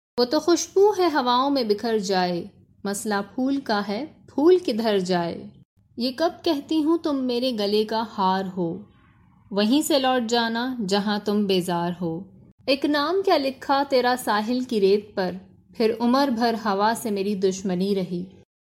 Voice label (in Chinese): 乌尔都语女 外语 小语种